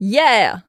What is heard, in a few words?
Female yeah; blastmorph pinball; xbox series xs